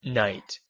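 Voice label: "night", English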